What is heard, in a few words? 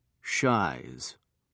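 "shies", British English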